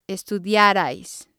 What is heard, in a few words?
"estudiarais", spanish